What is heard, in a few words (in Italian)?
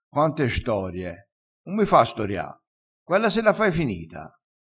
"storia", crespinese